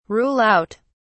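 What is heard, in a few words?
"rule out", English, British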